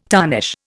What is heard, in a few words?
"tarnish", Indian